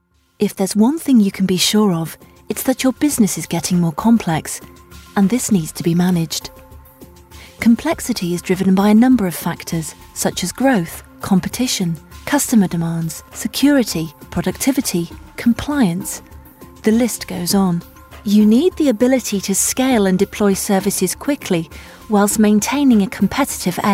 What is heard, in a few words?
Voiceovers UK Showreel